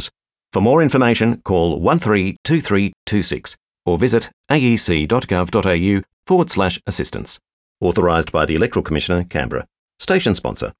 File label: Completing the ballot paper, radio; RPH radio